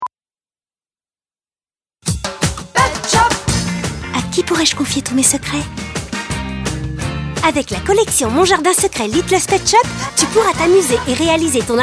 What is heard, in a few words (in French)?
Petite fille, Voix jouet; Petshop